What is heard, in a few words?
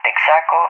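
"Texaco", English, Spain; CallSign; DCS; Allied Flight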